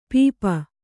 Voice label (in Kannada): "ಪೆಪ್ಪು", Kannada